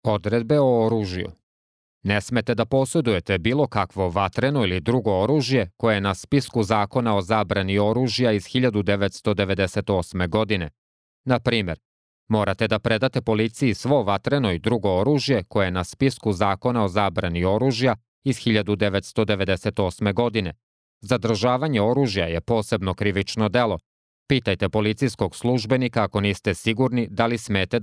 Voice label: serbian; orders about weapons